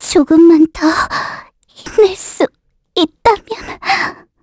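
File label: Vox Dead, Korean; voices; heroes; Estelle; kingsraid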